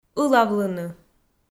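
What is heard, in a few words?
"ылавлыны", Komi, female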